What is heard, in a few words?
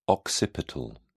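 "occipital", British English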